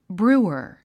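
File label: "brewer", English word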